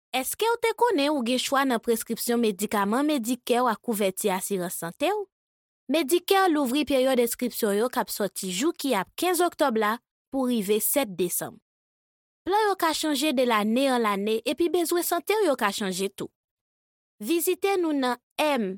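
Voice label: Haitian Creole, General; voice demos; GoLocalise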